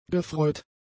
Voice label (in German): "gefreut", german; adjectives